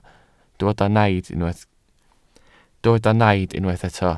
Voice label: "naid" Welsh

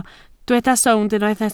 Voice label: "sownd", Welsh

